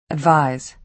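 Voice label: "advise", American English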